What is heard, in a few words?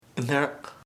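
song canciOn, Chamacoco word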